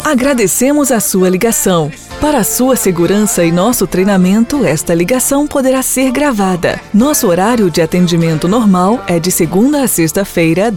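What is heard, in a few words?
Portuguese Brazilian IVR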